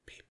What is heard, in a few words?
Voices quiet; The Looker